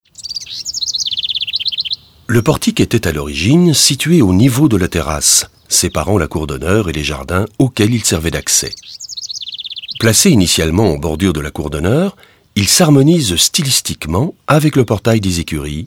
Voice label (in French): audioguide fr adulte; modave